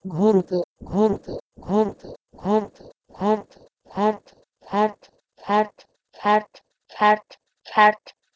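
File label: Proto-Indo-European ghordho to Persian cart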